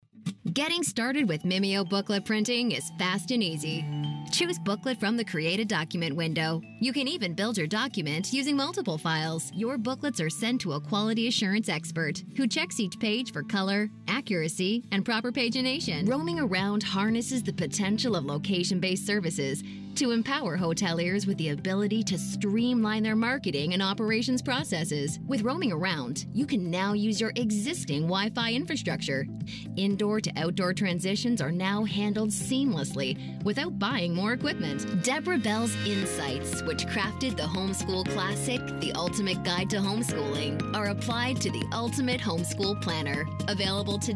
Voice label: explainer

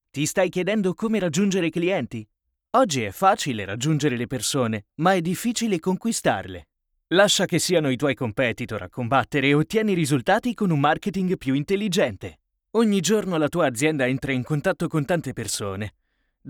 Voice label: audition hello human

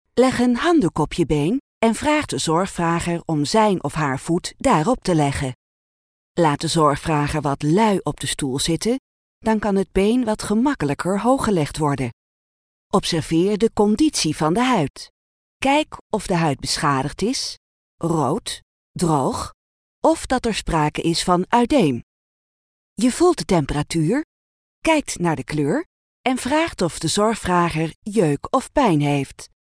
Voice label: elearning put on compression stockings

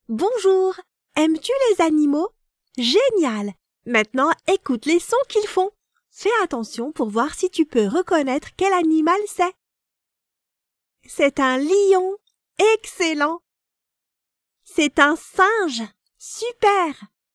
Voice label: game kids

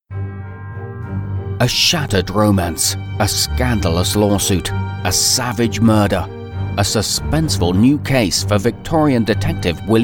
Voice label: Trailers Voice-over Stereo

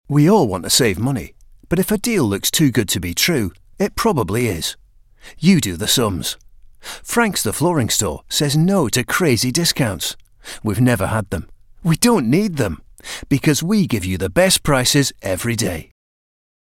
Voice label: Northern Accent

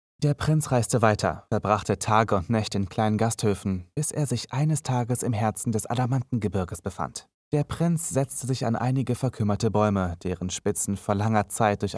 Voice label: narrator

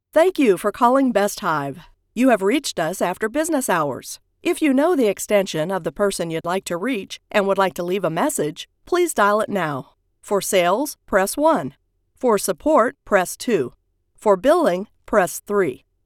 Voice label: After Hours Phone Message

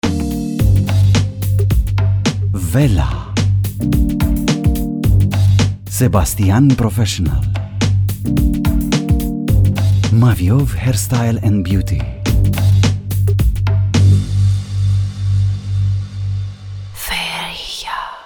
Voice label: Promo; Feeria

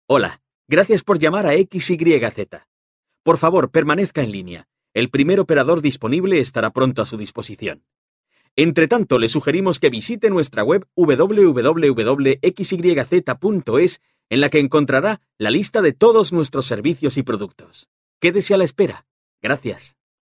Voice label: On Hold Message

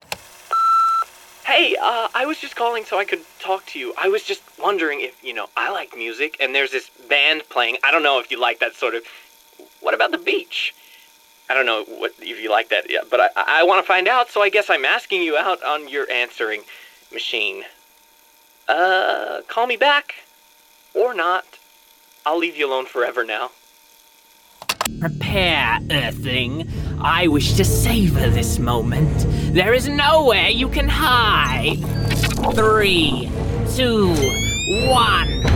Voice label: character and accent

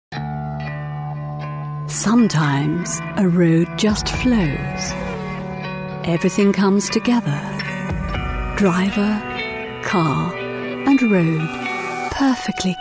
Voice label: commercial mix

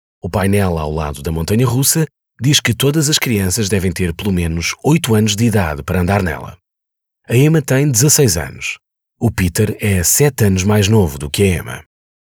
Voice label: MALE portugues elearning

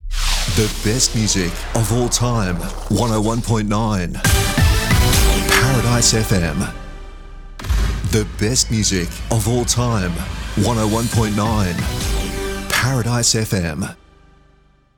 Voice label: Imaging Montage

